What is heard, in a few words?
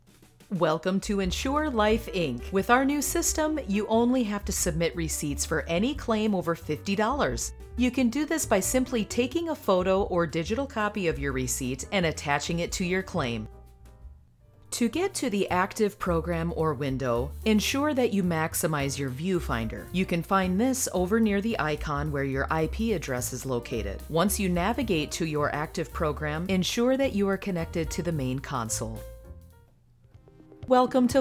e-learning